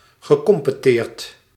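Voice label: "gecompeteerd", Dutch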